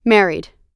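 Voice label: "married", US English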